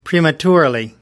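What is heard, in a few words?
"prematurely", English, British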